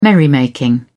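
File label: "merrymaking", British English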